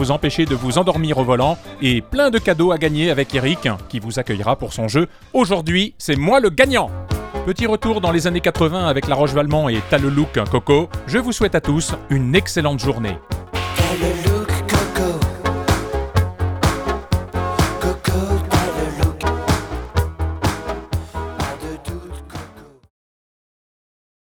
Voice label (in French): test micro sm7 radio